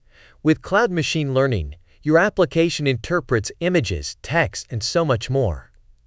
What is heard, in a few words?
en us; journey d